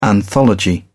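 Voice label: "anthology", British English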